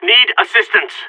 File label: radio taking fire; vo; agents; Source Model Packs; Counter Strike Model Pack; CS2; seal